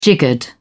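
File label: "jiggered", British English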